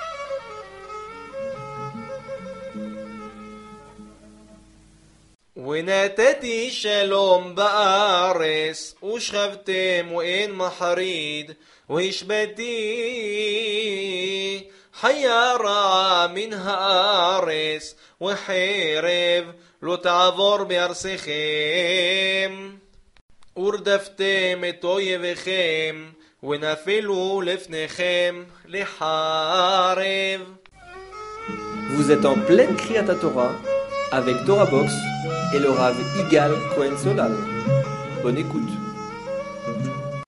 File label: Paracha tunisien; Behoukotai 2e montee; vayikra levitique